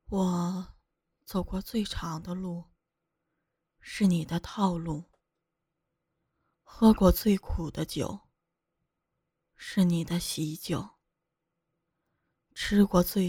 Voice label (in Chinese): 悲伤